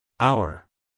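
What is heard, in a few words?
"hour", American English, male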